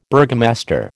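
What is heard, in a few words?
"burgomaster", American English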